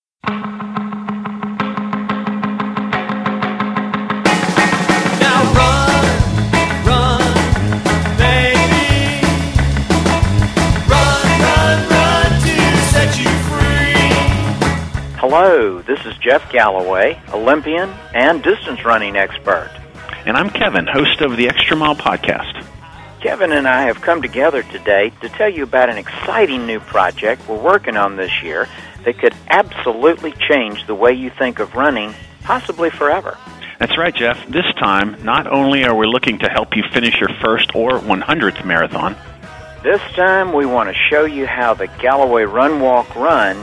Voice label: Commercial